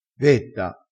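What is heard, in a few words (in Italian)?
"vetta", dialettale, crespinese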